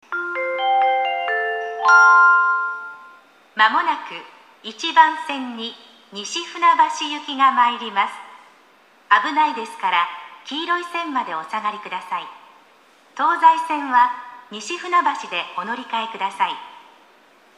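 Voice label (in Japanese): 駅放送, 1番線接近; 線内折り返しダイヤ時に収録できた激レア放送です。; toyo-katsutadai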